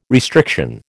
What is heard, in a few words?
"restriction", American